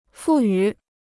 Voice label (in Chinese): "赋予", Chinese